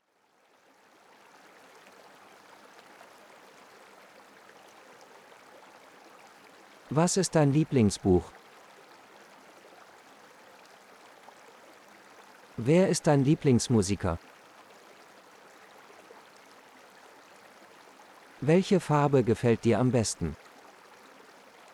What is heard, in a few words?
Relax Water Phrases, de-en